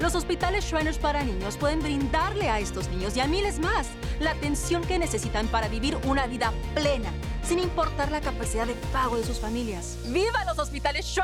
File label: Radio; Viva Shriners